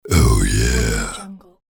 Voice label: deep male voice "oh yeah"